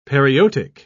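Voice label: "periotic", British English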